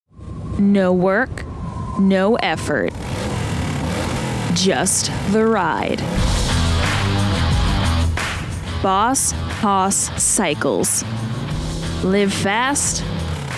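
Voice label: Commericial Demo; Voice-Over/Radio Work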